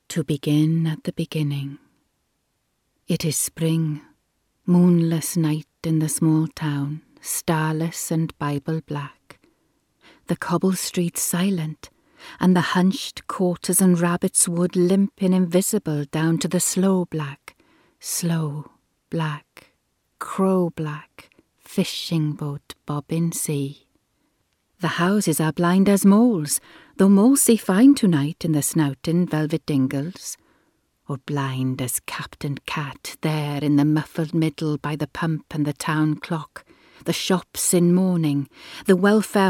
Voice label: welsh

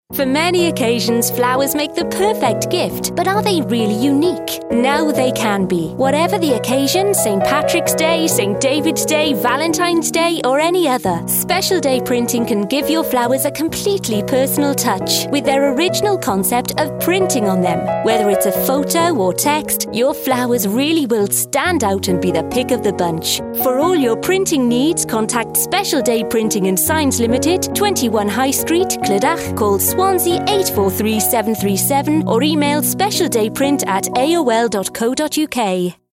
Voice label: welsh accent

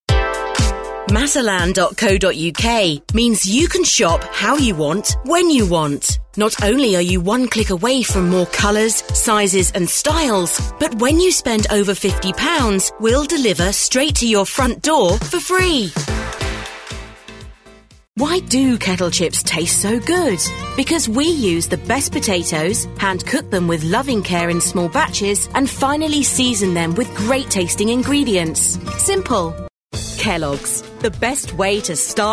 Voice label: in-store commercials

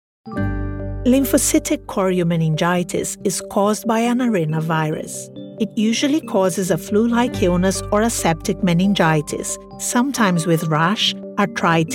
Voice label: brazilian female english medical

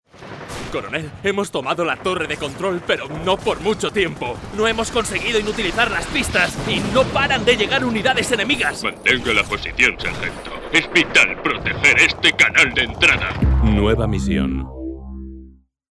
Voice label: castilian spanish male game

